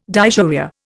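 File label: "dysuria", Indian English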